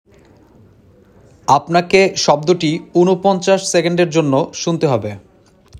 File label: male sound given